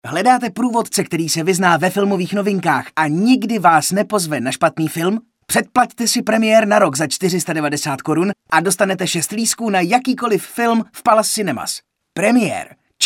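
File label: Male Czech commercial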